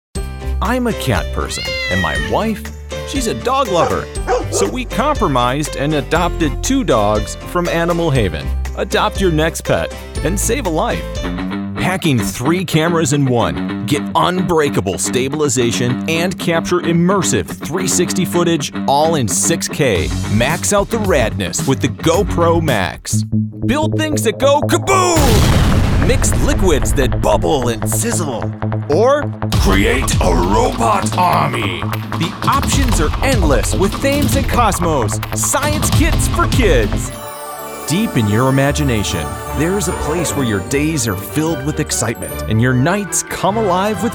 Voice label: COMMERCIAL